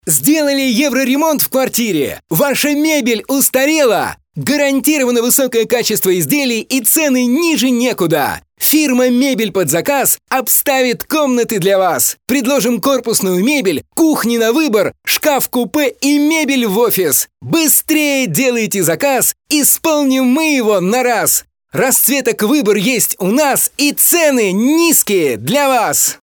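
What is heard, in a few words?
Russian male Active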